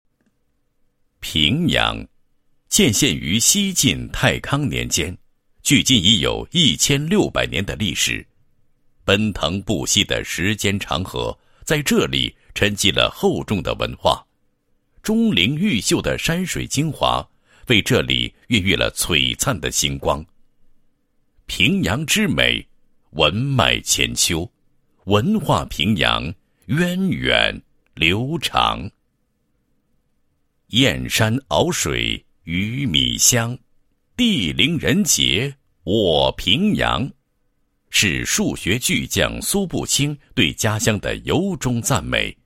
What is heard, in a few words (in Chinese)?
男, 地区; 文化平阳